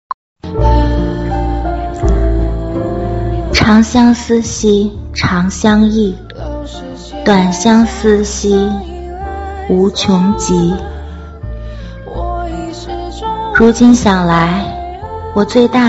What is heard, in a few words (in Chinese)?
古风独白